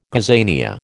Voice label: "gazania", American